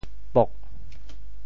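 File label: "pok4", Teochew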